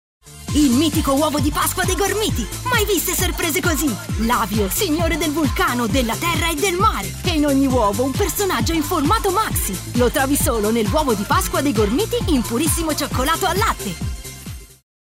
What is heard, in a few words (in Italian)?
Spot Bambino